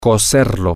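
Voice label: male, spanish; to Cook It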